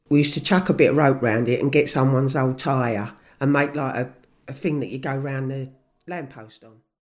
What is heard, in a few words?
EAST LONDON FEMALE